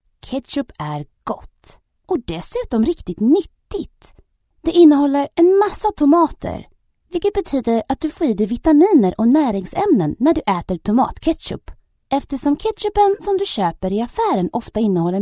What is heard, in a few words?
Swedish Native